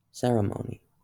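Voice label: "ceremony", US English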